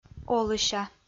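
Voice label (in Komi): "олыся", Komi, female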